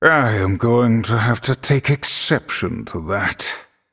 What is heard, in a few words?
Male Night Elf